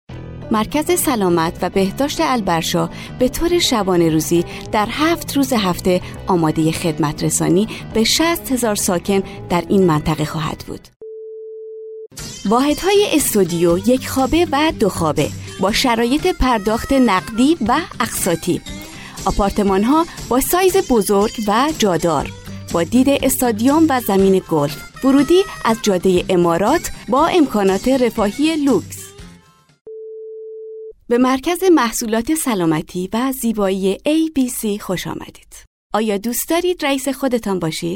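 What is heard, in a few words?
farsi female narration; voice demos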